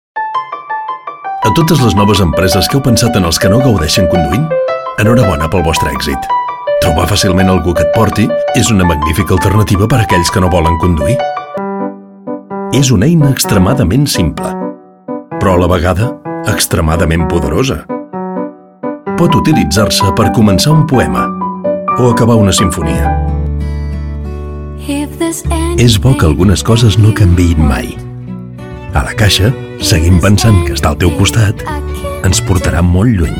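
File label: Catalan, General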